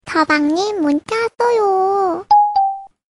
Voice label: Sms Korean